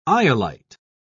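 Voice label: "iolite", English, British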